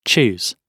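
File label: "choose", British English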